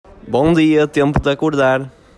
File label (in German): Portugiesisch Guten Morgen Zeit zum Aufstehen